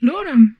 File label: humanoid female; Stellaris